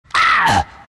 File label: venm pain; dota; venomancer